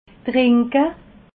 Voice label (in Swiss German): "trinke", alsace